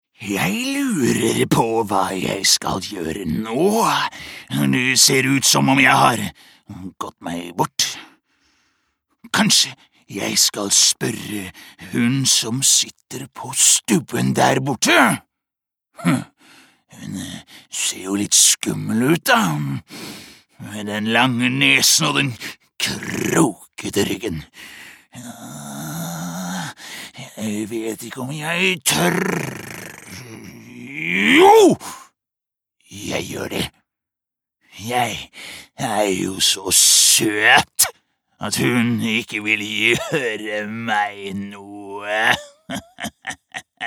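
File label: no dub character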